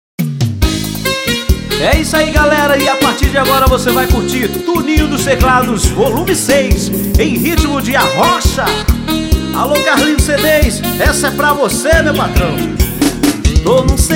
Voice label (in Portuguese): Locutor